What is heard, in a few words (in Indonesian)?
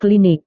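"klinik", Indonesian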